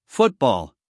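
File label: "football", American English, male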